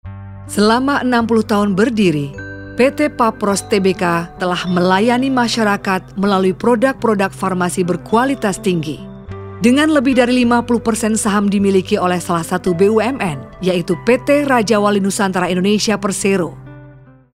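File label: Indonesian formal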